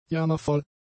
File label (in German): "jammervoll", german; adjectives